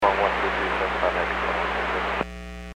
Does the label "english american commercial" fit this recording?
No